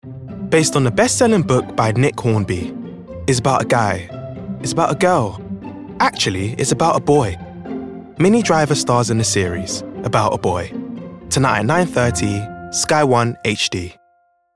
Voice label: Promo